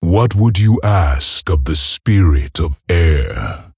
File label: Male Orc; Drek Thar; Thrall